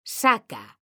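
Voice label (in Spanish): "saca", female; mekanta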